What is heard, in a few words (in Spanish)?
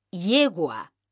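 "yegua", Spanish, female; mekanta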